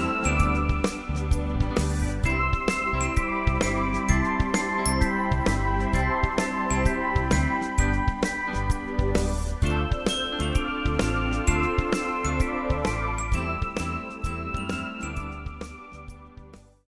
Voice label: Christmas On Hold; iNarrator